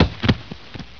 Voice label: fall pain, player; cstrike